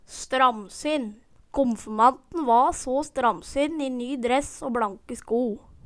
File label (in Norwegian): stramsin, Numedalsmål